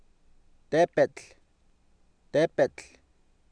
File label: "teepetl", Oapan, male; Oapan minimal pairs 2000